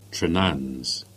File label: "Trenance" Cornish